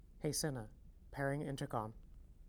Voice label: Pairing Intercom, voice command; 50series